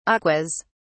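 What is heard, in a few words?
"aquas", British English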